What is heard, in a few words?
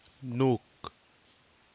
"nuk", Nafe (Kwamera)